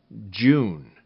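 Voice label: "June", English; RhymeTest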